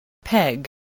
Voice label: "peg", English, voice

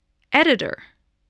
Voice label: "editor", English

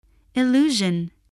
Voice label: "illusion", voice spelling

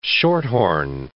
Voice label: "shorthorn", English

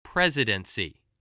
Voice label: "presidency", voice, spelling

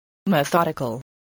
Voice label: "methodical", voice, spelling

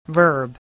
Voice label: "verb", English

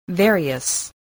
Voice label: "various", English

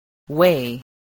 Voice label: "weigh", spelling

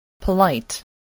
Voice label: "polite", English

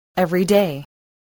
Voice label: "everyday", voice, english

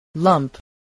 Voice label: "lump", spelling voice

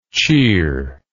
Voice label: "cheeringly", English

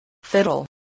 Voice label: "fiddle", voice

